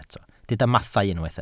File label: "mathau", Welsh